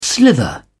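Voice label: "slither", British English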